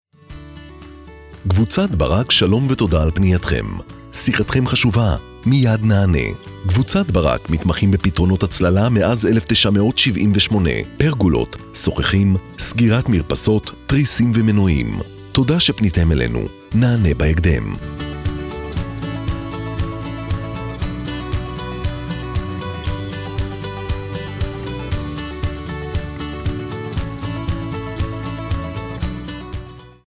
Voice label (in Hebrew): פתיח לטלפונייה